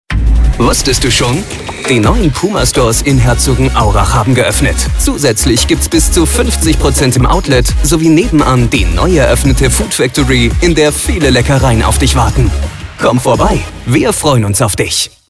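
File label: German, male, commercial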